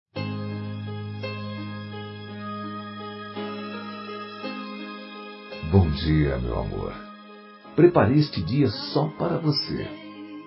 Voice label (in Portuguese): tele mensagem bom dia romantico voz masculina; COMEMORATIVAS